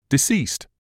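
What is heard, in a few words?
"deceased", British English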